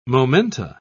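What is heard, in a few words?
"momenta", British English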